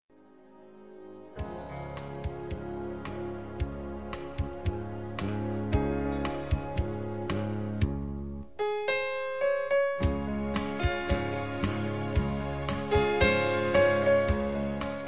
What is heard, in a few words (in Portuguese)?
Dia das Maes M